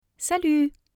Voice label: "salut", French; hello

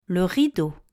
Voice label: "rideau" French; house in french

